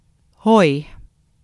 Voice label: dutch hello